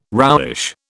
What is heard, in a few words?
"roughish", British